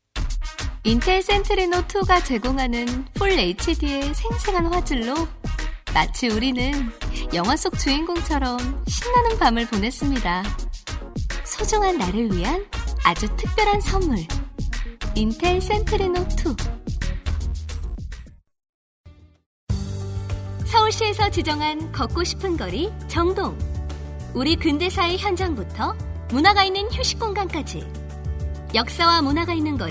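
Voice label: Korean female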